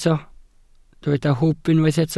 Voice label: "hwb", Welsh